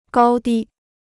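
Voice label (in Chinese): "高低" Chinese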